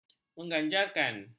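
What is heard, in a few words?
"mengganjarkan", Malay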